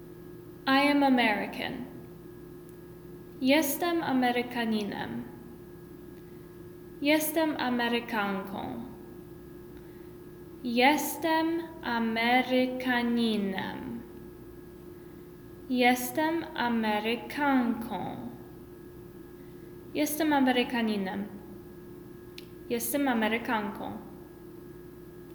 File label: Pol I am American